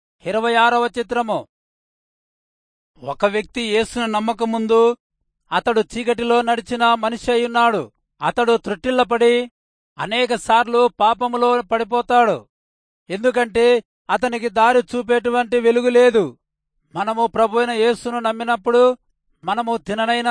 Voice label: telugu rayalseema; good news